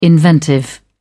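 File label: "inventive", British English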